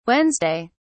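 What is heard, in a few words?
"wednesday", British English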